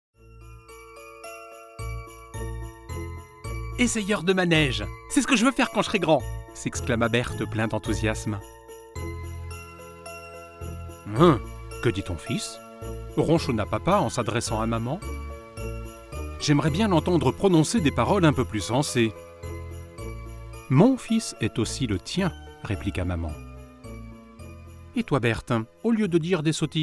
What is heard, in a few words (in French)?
voix off conte; manege